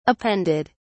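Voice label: "appended", British English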